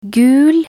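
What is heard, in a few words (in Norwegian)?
"gul" Norwegian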